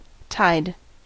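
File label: "tide", US English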